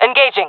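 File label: attacking, jungle female; vo; agents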